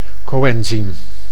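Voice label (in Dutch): "coenzyme", Dutch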